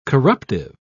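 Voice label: "corruptive", British English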